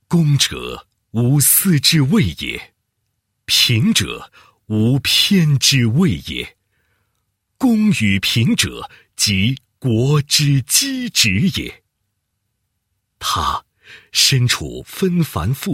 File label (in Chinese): 男-人物纪实; 优秀法官